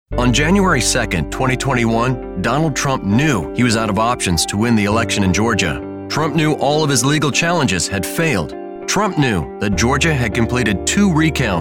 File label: pol, Political